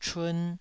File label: "chun2", Taiwanese